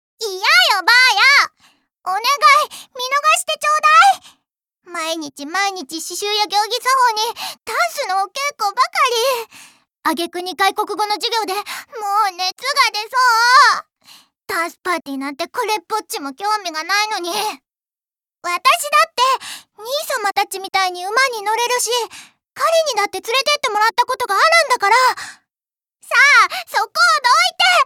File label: girl; 女の子